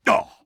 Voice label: pain, combine soldier; hl2